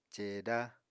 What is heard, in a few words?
"ceeda", Apatani